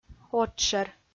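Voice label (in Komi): "отшӧр", Komi, female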